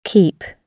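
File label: "keep", British English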